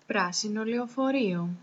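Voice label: green korean bus, Greek; tuxpaint-stamps; vehicles; masstransit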